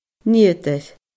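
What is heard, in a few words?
"nigheadair", Scottish Gaelic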